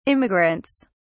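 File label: "immigrants", English, British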